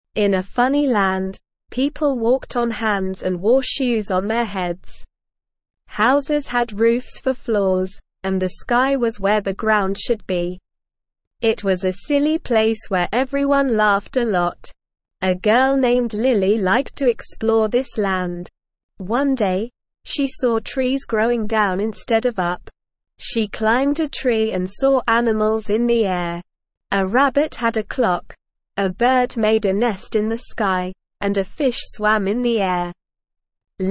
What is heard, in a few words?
ESL Short Stories for Kids Beginner SLOW Reading; The Land Where Everything is Upside Down